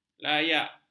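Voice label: "layak", Malay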